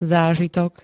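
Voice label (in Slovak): "zážitok", Slovak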